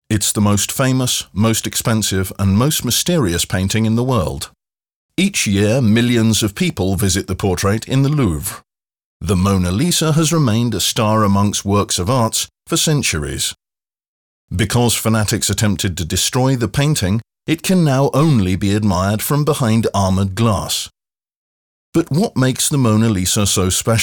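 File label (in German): US English, Kommentar, Dynamisch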